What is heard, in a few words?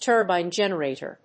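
"Turbine Generator", English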